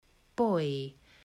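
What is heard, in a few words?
"boy", English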